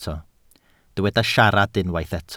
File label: "siarad", Welsh